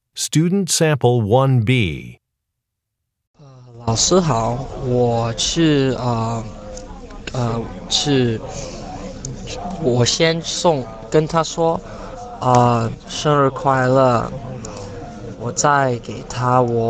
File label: chinese conversation